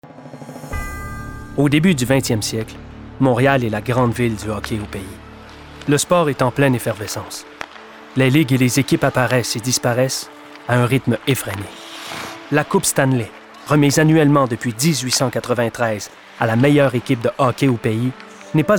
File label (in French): narration documentaire